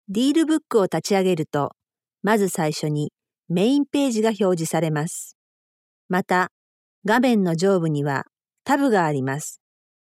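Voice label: Japanese elearning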